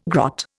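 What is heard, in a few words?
"grot", Indian English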